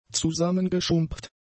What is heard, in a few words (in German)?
"zusammengeschrumpft", German; adjectives